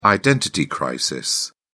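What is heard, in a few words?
"identity crisis", British English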